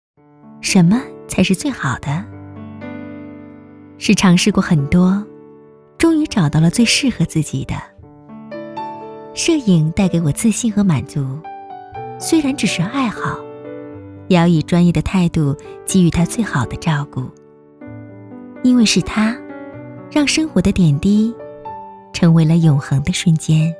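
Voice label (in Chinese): 女国大音-叙说; 什么才是最好的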